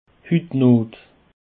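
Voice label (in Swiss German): "hut not", Alsatian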